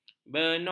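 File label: "benok", Malay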